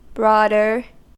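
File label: "broader", US English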